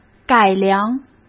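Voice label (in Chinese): "改良" Mandarin Chinese; hsk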